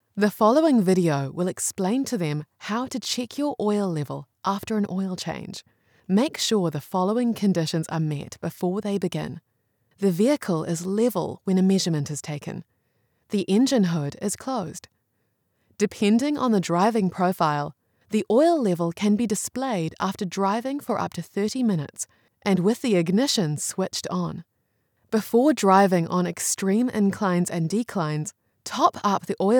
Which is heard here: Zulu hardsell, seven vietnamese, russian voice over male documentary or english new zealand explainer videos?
english new zealand explainer videos